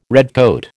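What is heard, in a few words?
"redcoat", American English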